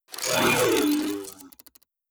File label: robot molluscoid greetings; Stellaris; synthetic dawn